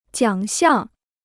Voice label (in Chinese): "奖项", Chinese